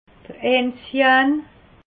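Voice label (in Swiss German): "enzian", Alsatian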